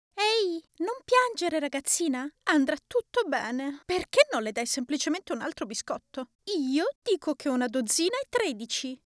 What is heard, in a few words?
italian female character; voice demos